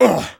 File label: pain sharp; Engineer